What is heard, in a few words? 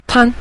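"tan", Mandarin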